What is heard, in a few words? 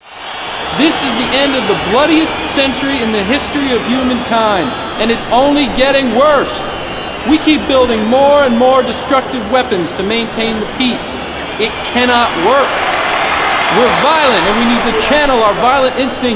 US Audio Advert; Quotes From the Heat Wave Rally